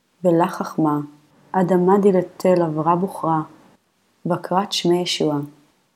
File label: Matthew Reading Practice, Aramaic